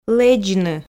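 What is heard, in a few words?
"лэдзны" Komi, female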